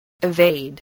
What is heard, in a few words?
"evade", voice, english